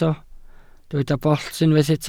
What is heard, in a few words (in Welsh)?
"bollt", Welsh